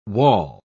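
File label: "waul", British English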